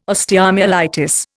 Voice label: "osteomyelitis", Indian

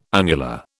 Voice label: "annular", British

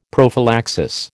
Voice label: "prophylaxis", American

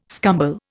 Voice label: "scumble", Indian

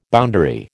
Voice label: "boundary", American

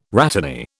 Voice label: "rhatany", British

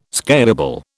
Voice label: "scalable", British

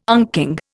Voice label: "unking", Indian

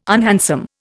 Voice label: "unhandsome", Indian

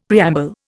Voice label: "preamble", Indian; Dictionary

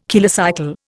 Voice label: "kilocycle", Indian

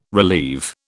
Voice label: "relieve", British

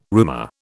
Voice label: "roomer", British

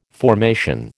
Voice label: "formation", American

